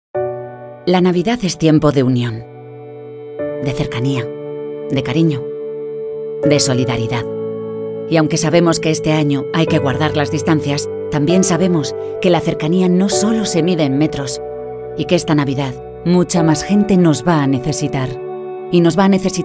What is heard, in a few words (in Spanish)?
Femenino